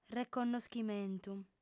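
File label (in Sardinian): "reconnoschimentu", female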